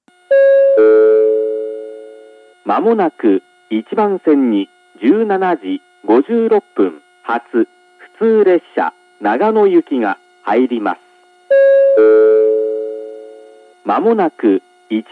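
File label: platform 1 approaching advance notice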